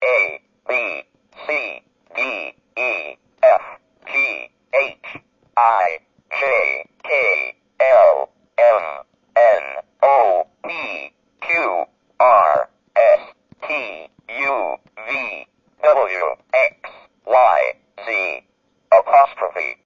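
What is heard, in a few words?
Speak And Spell Alphabet A Z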